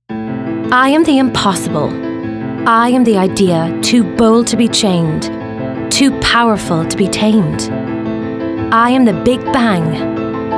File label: female english commercial; BMW